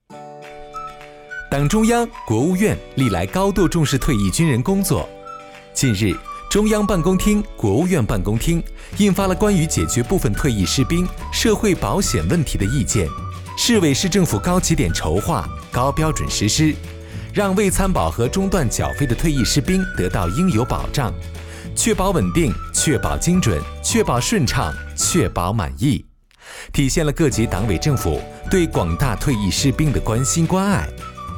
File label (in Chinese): 男 MG 讲述